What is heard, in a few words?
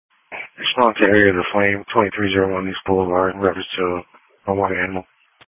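Police Patrol (Dispatch); New Bern Police Patrol 1 (Dispatch) (TG 200)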